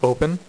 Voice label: "OPEN", dealer's speech